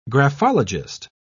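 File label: "graphologist", British English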